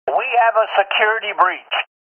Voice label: radio sec breach; sven; dialogs